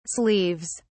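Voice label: "sleeves", British English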